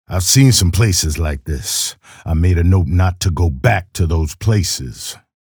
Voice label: chatter neutral; keel